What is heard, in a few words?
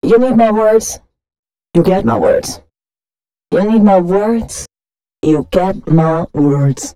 female c# 138, spoken hooks; Mutekki Media - Dance Ultra Voice VOL-1